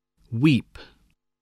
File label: "weep", spelling